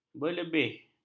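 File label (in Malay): "berlebih", Malay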